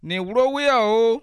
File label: birthday, bassa